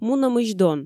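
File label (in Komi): мунӧмысь дон, Komi, female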